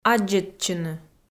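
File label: "аддзӧдчыны", Komi, female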